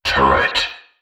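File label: "turret", synthesized voice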